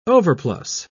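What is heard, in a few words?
"overplus", British English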